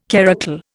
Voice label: "karakul", Indian